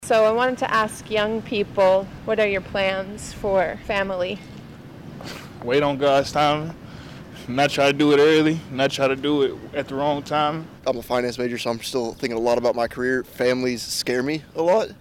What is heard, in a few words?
vox pop; birth rate